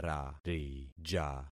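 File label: "rarija", concatenated syllables; Affixing experiment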